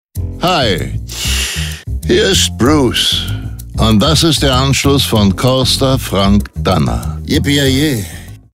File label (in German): telefonansagen mobilbox; carpe diem studios